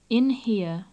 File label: "in here", English